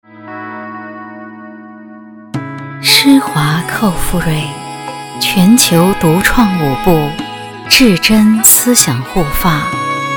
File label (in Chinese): 女 品牌广告 配乐, 优雅; 国语女声配音; 施华蔻赋芮